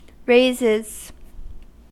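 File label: "raises", US English; pronunciations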